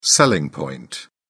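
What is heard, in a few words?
"selling point", British English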